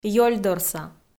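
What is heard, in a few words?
"ёльдорса", Komi, female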